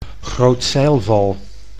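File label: "grootzeilval", Dutch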